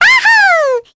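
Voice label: vox Happy, Korean; Mirianne; kingsraid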